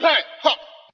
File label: "hey ho", voice; ooh ahh